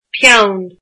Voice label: "pen", Irish